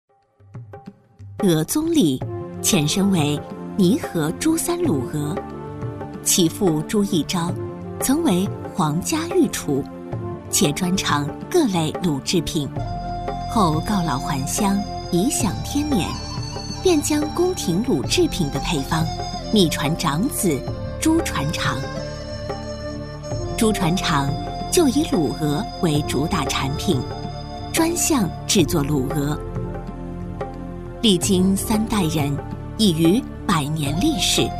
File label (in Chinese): 女 纪录片 舌尖感觉; 卤鹅; 舒缓抒情